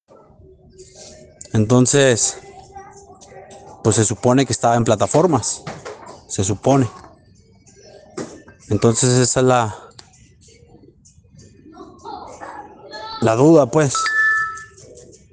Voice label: whatsapp ptt